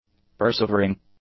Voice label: "persevering", english; adjectives